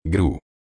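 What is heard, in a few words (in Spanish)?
"gru", Spanish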